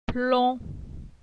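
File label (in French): "Plon", French; phonetique; la syllabe on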